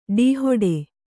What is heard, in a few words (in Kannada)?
"ಡೀಹೊಡೆ", Kannada